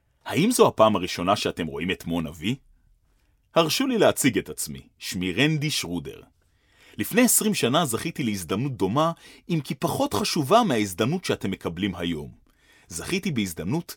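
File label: Hebrew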